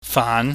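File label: "faan", Cantonese, mid rising tone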